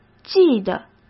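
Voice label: "记得", Mandarin Chinese; chinese-flashcards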